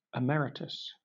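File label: "emeritus", British English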